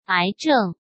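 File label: "癌症", Mandarin Chinese; hsk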